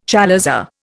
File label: "chalaza", Indian